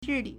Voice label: "zhi4li3", Chinese